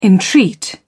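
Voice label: "entreat", English, British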